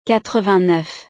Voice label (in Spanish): "quatre-vingt-neuf", frances